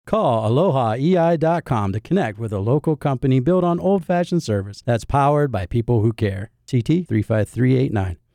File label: Old Fashioned, radio ads; E-I-Electrical; 10-Liner